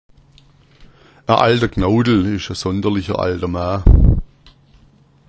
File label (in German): "knautel", schwaebisch